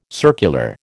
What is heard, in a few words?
"circular", American